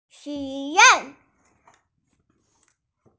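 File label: "xu3 yuan4", Mandarin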